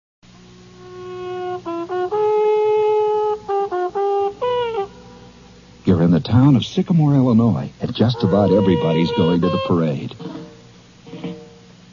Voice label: Save Gas Commercial; Jean Shepherd; OTR Commercials